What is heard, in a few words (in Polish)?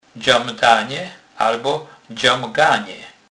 "dzamdane", kurpiow